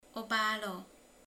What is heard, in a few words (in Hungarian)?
"disznó (hím)", romani